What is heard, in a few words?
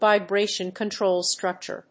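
"VIBRATION CONTROL STRUCTURE", English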